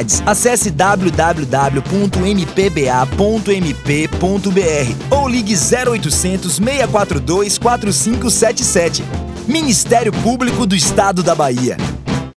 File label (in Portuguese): spot carnaval